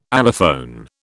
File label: "allophone", British